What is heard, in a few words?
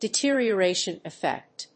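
"deterioration effect", English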